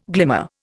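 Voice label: "glimmering", Indian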